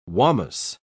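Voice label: "wamus", English, British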